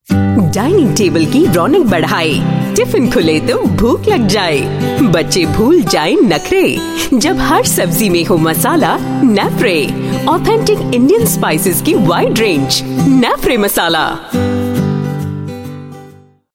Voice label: Hindi Female